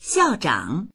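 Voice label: "xiao4zhang3", Mandarin Chinese; 校长; 校長、学長; dictionary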